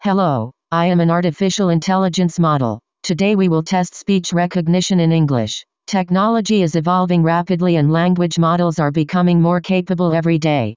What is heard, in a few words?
english speech